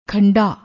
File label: "khanda", Punjabi; ਖੰਡਾ